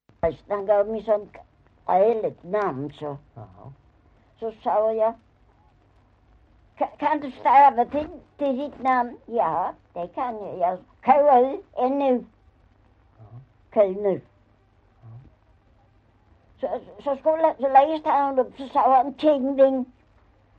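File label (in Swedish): Maglarp Skåne, Svenska sagor dialekter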